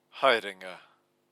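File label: "haerenga", Maori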